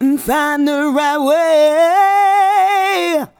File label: FIND THE, FEMALE VOICE; Best Service; Hallelujah